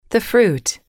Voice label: "the fruit", English